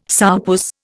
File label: "sourpuss", Indian